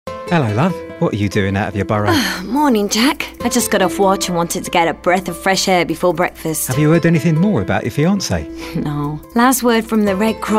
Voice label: concerned

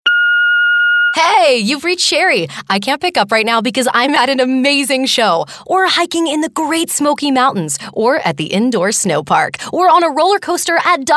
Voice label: Voicemail

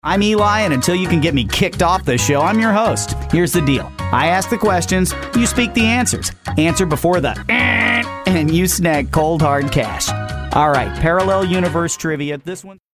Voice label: anti announcer

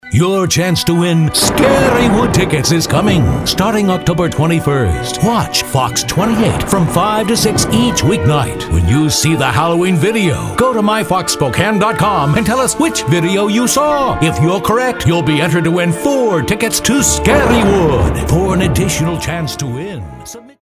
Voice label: halloween announcer

